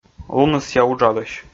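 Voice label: "лунысъя уджалысь", Komi, male; kpv audio dict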